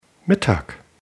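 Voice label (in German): "Mittag", German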